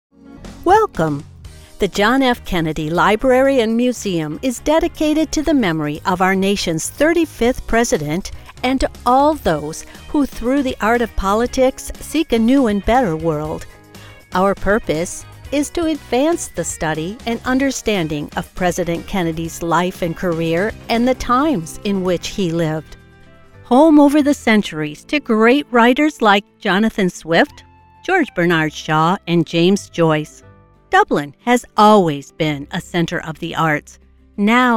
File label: Narration